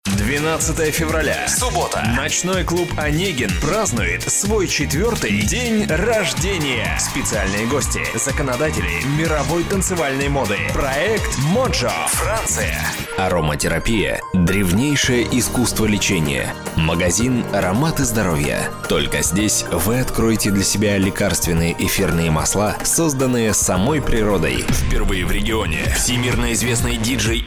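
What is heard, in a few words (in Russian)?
advert fx, Мужчина, Молодой, Русский, Бархатный, Средний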